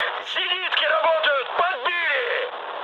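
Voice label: Russian damaged aa mood high, speech; War Thunder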